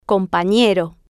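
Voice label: "companero", Spanish, female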